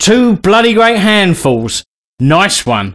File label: Cheer, voice commands male; svencoop